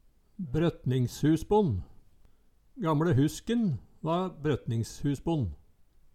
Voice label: brotningshusbonn, Numedalsmål

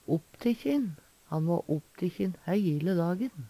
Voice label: "opptikkjin", Numedalsmål; Han va opptikkjin heile dagen.; Adjektiv

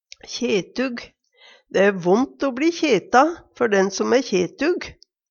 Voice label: kjetug, Numedalsmål

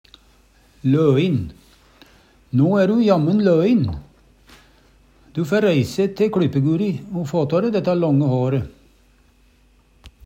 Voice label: loin, Numedalsmål; løin

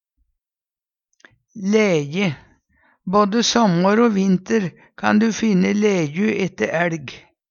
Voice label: "læje", Numedalsmål

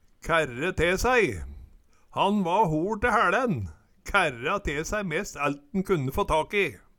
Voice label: kaerre te saei, Numedalsmål; kærre te sæi

